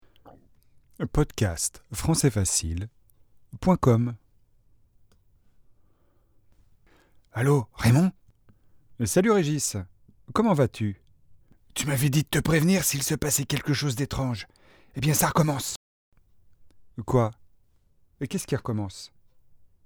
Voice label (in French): appel mysterieux